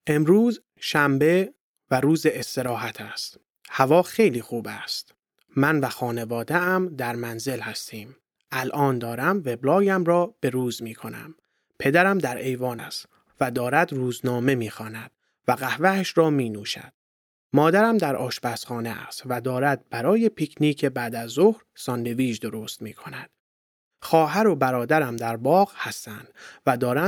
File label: text farsi